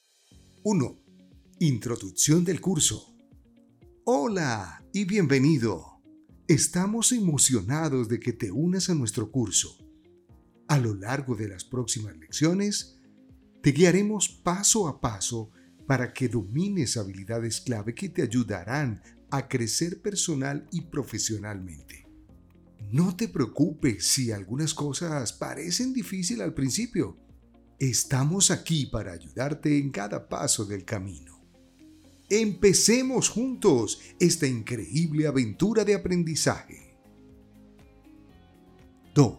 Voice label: E elearning, Voz Comercial, E-learning, Audiolibros; locutor colombiano